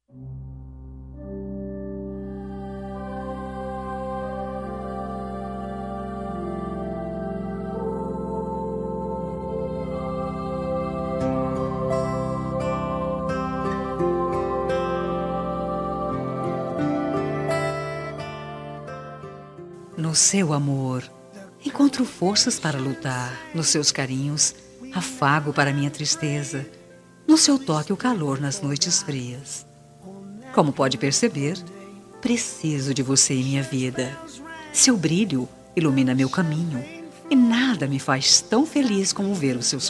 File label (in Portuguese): ANO NOVO ROMÂNTICO FEM; Datas importantes; Natal e Ano novo